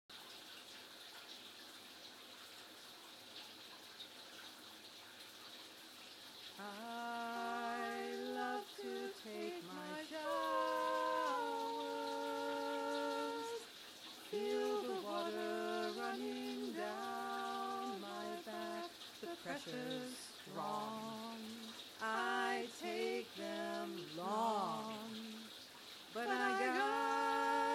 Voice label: 2 voices shower